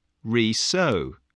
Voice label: "resow", English